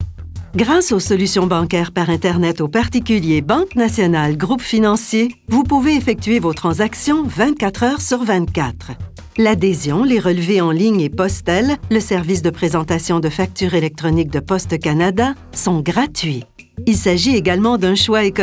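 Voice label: FRCA F corporate, Canadian French, female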